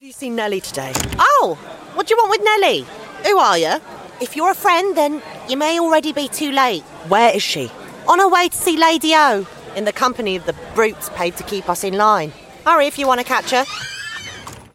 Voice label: London Cockney; Assasins Creed